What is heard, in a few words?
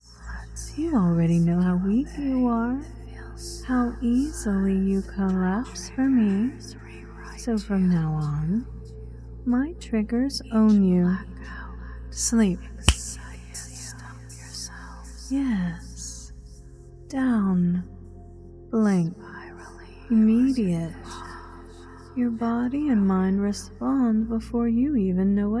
ASMR; Takeover